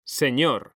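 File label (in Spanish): "SEÑOR", Spanish; mekanta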